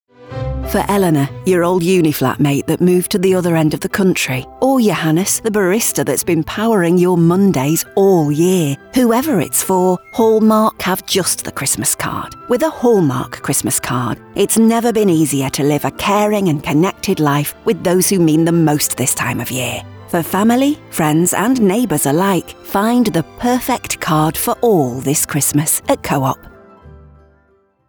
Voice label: female English northern accent in store commercial; hallmark cards; english northern accents